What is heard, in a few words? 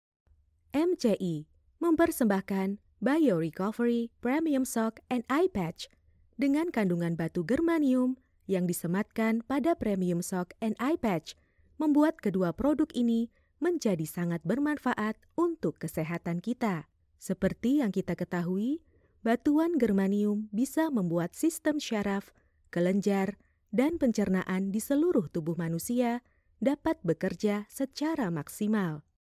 FORMAL INDONESIA